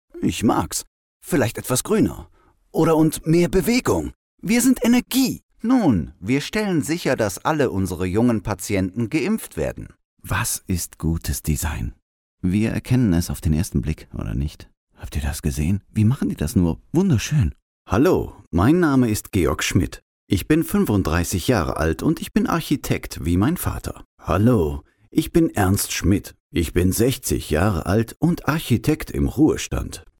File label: male german voice over character